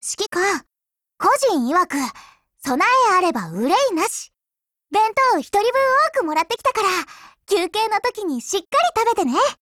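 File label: DIALOGUE WEDDING, Japanese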